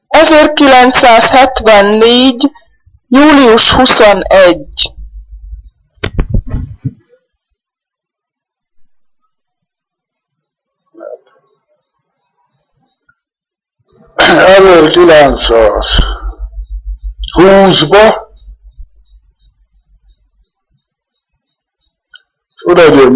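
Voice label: dialect collection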